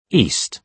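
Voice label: "east", British English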